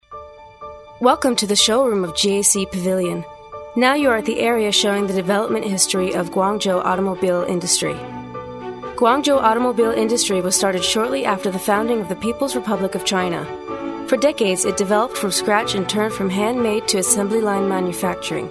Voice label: 外籍女英 外语 美式英语 导览类 讲述

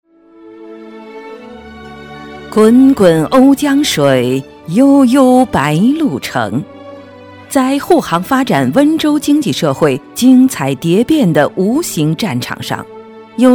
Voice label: 女 专题 政府 扬帆新时代 大气

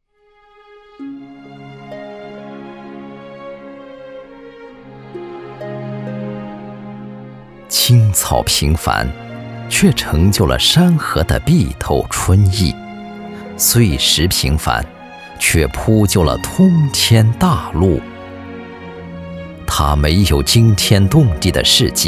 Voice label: 专题 人物 人物介绍, 男国; 移动通信

